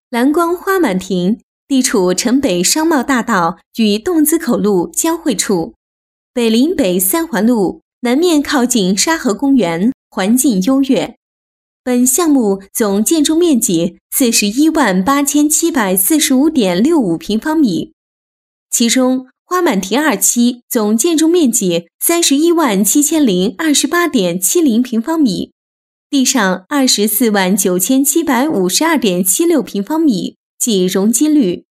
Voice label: 女 工程汇报 平稳讲述

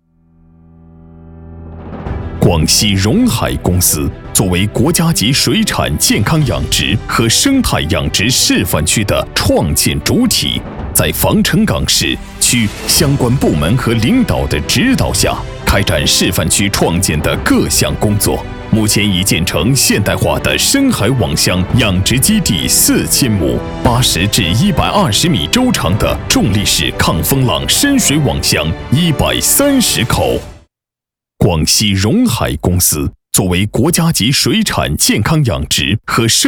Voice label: 男 专题 企业; 广西容海公司

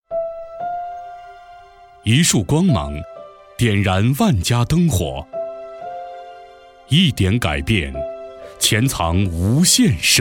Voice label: 男 专题 沉稳大气; 电力

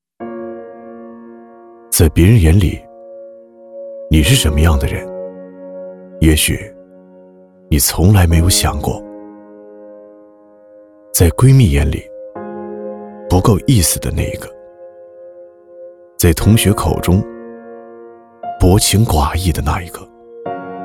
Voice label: 男 微电影 独白; 南郑农商银行的一员

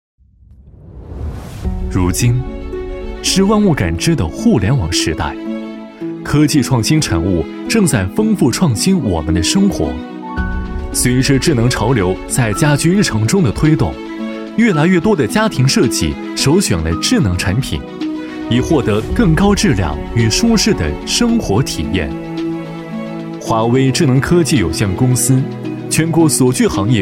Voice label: 男, 科技感; 华微科技

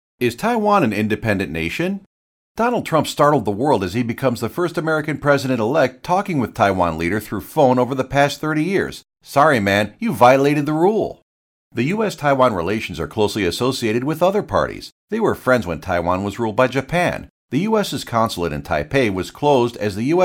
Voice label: 外籍; episode 8 us taiwan

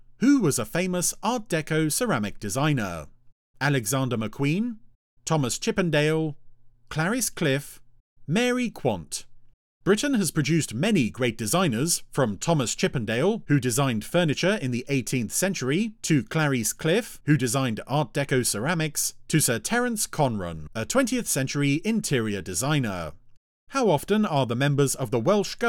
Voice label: 外籍英男 自然叙述

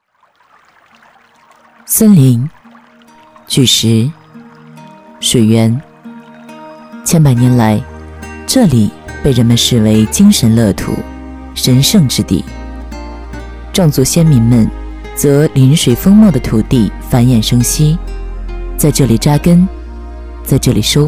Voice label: 女 专题 壮族

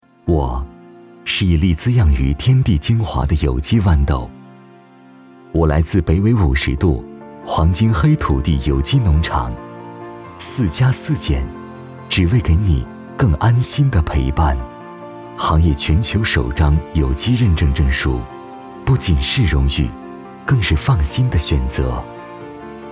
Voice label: 男, 企业, 品质, 沉稳; 龙口粉丝

